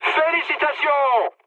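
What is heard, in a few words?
French player killed enemy mood high; War Thunder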